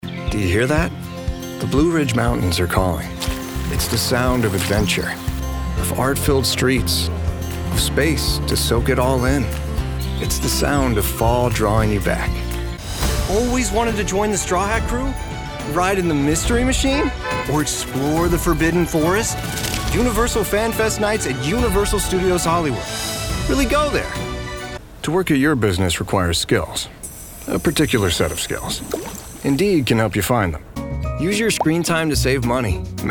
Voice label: Commercial